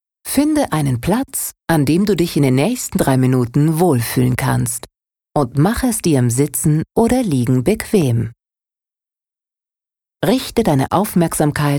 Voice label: Freundlich

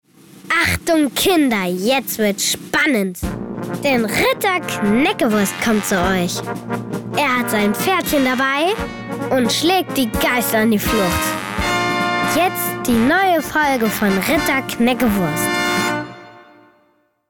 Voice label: Dynamisch; Fremdtake

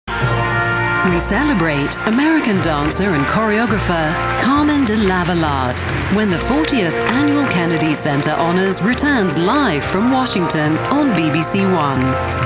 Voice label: Eng UK Neutral

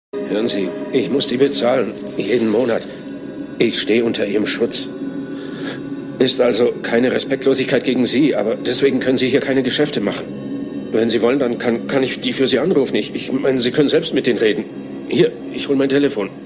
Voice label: Dynamisch

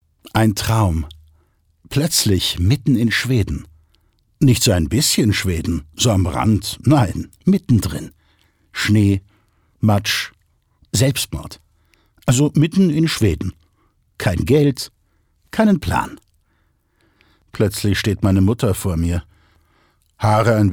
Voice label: Schauspiel, Neutral

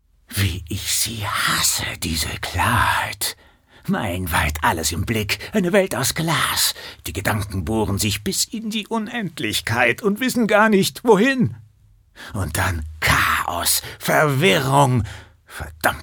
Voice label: Schauspiel Energisch

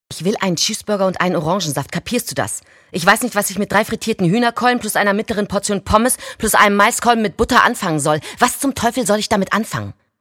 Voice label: Fremdtake Energisch

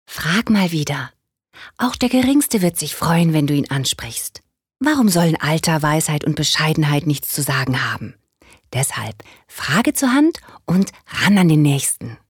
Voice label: Werbung Kindlich

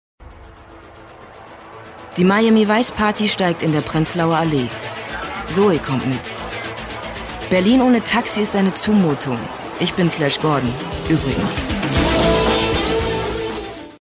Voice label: Kommentar, Neutral

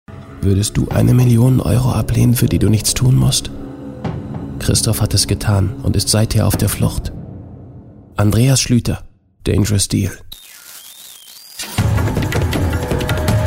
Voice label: Geheimnisvoll

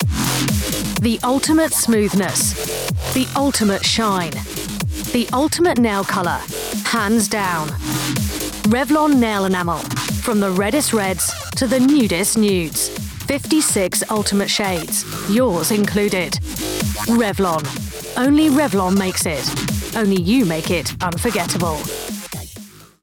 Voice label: Eng UK Cool; Fremdtake

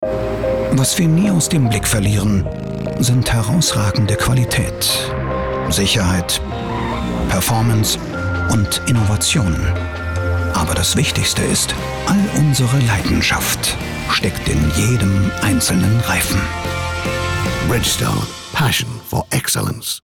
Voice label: Werbung, Lebendig

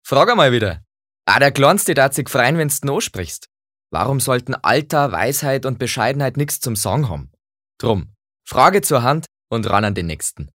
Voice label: Dialekt Bayerisch

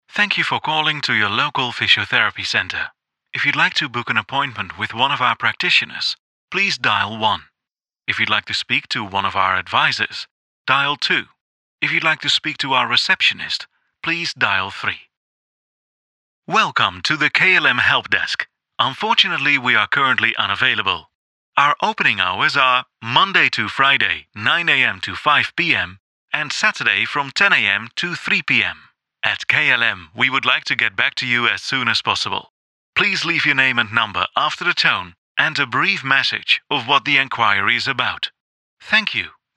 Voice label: K Eng UK Fremdtake Neutral

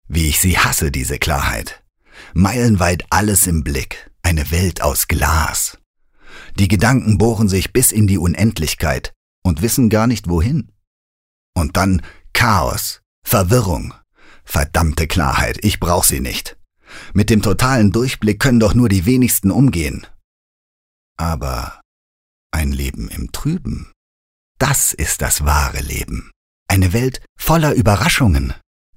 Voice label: Dynamisch; Klarheit

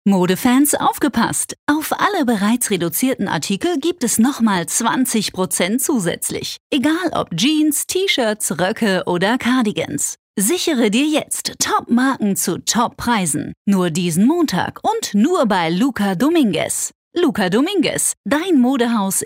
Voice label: Dynamisch

